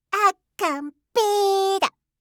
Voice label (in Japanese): "akkanbee da", kanjou